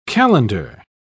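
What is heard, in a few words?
"calender", English, British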